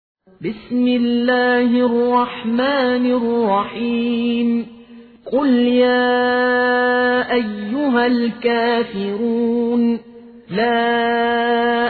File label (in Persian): سوره کافرون حفص عن عاصم